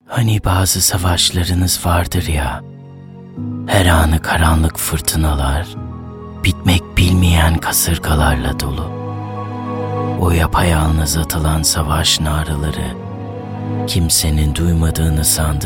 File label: turkish male commercial